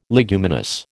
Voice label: "leguminous", American